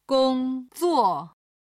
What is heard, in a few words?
"gongzuo", Chinese